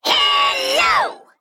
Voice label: Male Mech Attack